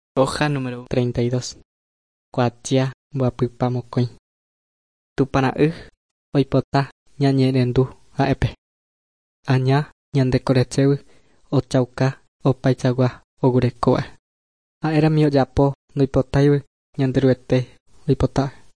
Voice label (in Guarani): guarani mbya; good news and words of life; bible